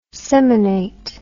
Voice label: "seminate", English

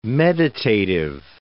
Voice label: "meditative", English

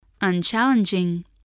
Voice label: "unchallenging", spelling

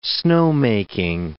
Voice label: "snowmaking", English

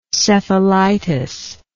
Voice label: "cephalitis", English

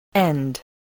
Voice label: "end", spelling

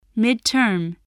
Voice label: "midterm", voice, spelling

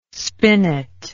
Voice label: "spinet", English

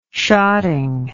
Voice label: "shotting", English

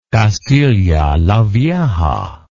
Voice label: "castilla la vieja", English

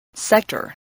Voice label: "sector", english spelling

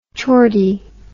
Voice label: "chordy", English

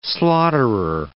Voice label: "slaughterer", English

